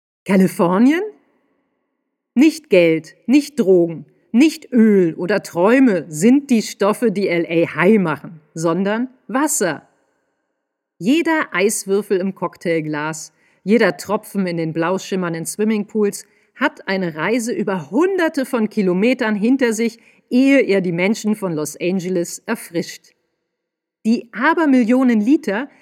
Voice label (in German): Sprecherin Jung Dynamisch